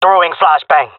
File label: throwing flashbang, jungle male; vo; agents; Source Model Packs; Counter Strike Model Pack; CS2